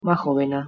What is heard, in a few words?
"mahovina", Bosnian Croatian Serbian